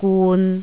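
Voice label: "gun", Cantonese, tone 3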